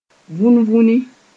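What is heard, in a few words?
"vũn-vũni", Mossi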